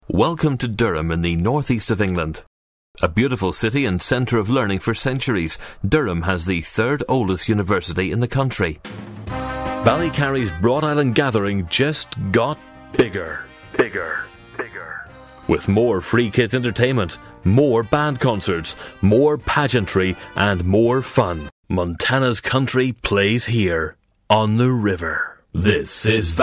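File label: English Irish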